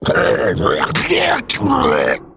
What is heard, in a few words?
Male Construct Play